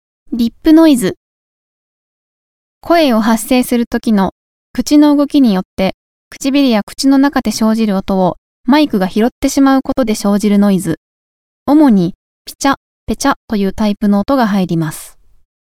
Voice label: Lip Noise Voice Cleaning